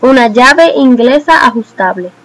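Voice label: wrench adjustable desc, Spanish; tuxpaint-stamps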